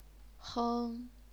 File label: "heng1", chinese pinyin